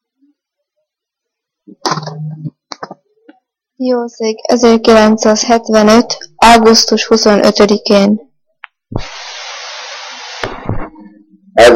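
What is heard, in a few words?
dialect collection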